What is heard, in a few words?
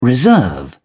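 "reserve", British English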